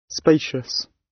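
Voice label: "spacious", British; Design and innovation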